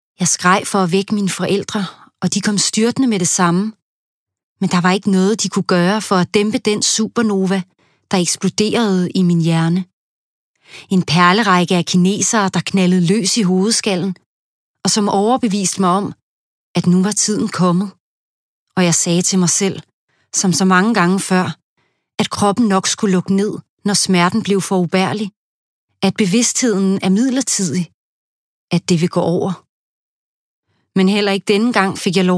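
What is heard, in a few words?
Danish, Audiobook, female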